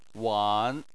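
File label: "wan", Mandarin Chinese, third tone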